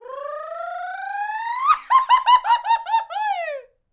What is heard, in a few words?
MEXICO VOICE, MEXICAN; ILIO; Vocal Planet VOL-5